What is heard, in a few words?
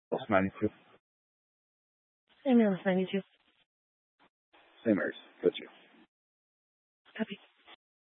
Fire Dispatch, scanner